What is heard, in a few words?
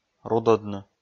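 "рудӧдны", Komi, male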